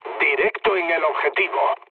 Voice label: bomb success, mood low, speech; War Thunder

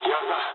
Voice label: jp trick, speech jp

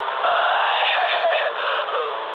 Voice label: damaged pilot, speech ru

